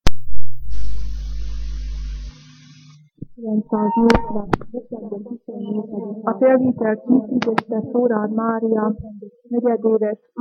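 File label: dialect collection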